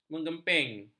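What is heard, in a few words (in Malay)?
"mengemping", Malay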